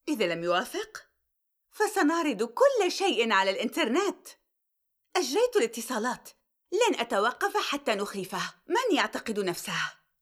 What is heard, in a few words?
Drama